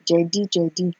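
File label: "jedi jedi", Nigerian Pidgin, female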